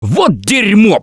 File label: reaction negative, russian; Gambler